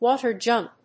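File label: "water jump", English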